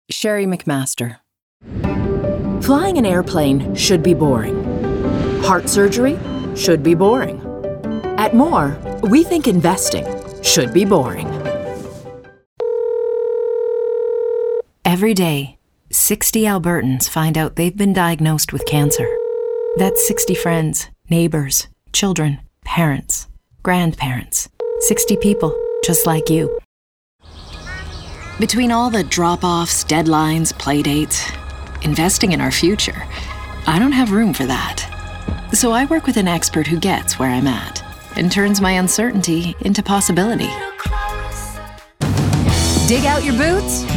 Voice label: Commercial